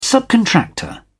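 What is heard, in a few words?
"subcontractor", British English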